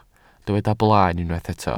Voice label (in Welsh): "blaen", Welsh